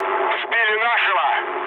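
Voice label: Russian aircraft destroyed mood low, speech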